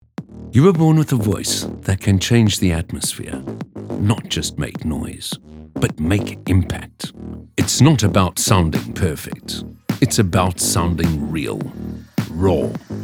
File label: Corporate AD